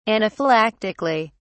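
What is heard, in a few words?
"anaphylactically", British English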